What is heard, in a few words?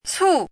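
"cù", Chinese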